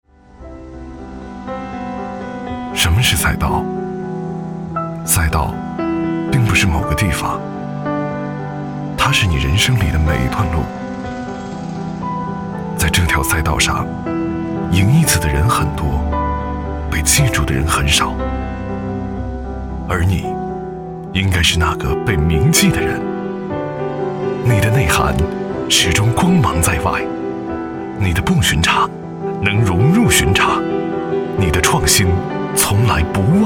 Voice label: 男 广告走心; 保时捷

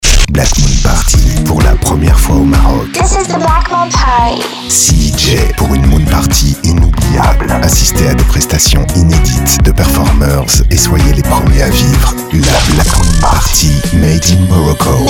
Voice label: 品质广告

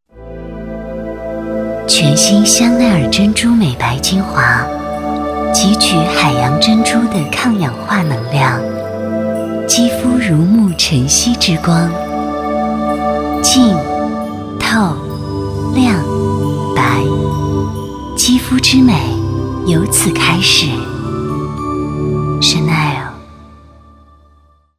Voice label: 女-精华--性感-质感; 香奈儿

